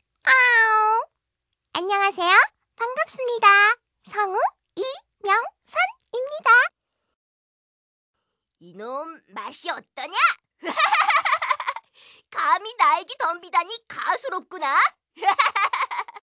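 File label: Korean, female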